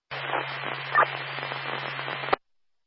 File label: amb police chatter; netent